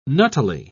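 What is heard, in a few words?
"nuttily", English, British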